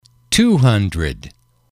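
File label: "two hundred", English, male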